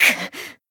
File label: damaged, jp